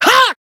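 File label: Voice attack, Japanese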